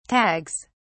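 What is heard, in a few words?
"tags", British English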